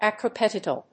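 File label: "acropetal", English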